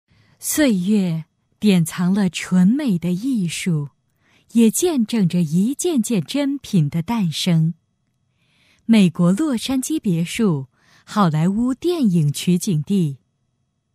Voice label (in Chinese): 女 房地产; 绝世臻品; 成熟知性